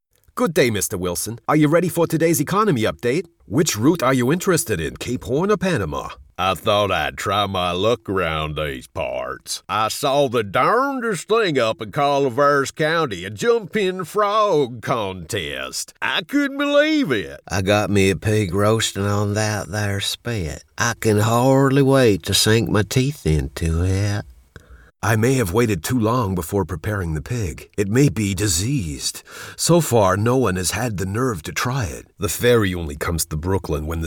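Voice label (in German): American English Schauspiel Variabel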